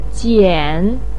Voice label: "jian3", Chinese pinyin voice